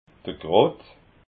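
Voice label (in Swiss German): "krott", Alsatian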